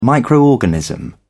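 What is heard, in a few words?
"microorganism", British English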